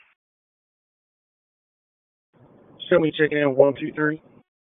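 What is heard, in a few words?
Police Dispatch, p25; Raleigh; Southwest District; wake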